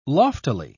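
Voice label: "loftily", British English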